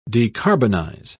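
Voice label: "decarbonize", English, British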